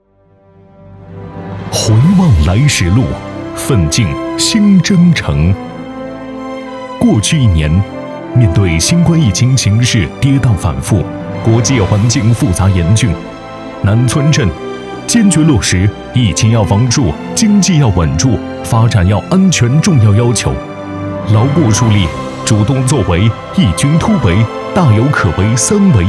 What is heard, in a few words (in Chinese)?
男 宣传片 高质量发展